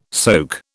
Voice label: "soak", British